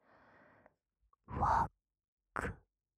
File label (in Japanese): ファック, ASMR; 淫語